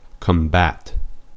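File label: "combat", American English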